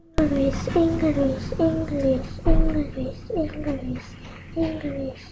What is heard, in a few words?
English